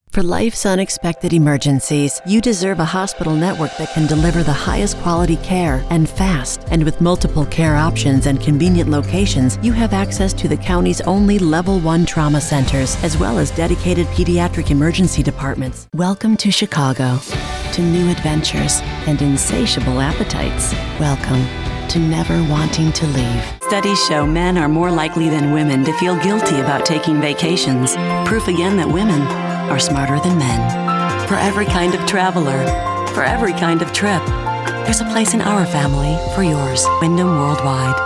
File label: Commercials, femalevoices